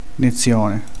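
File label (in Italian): "iniezione", Italian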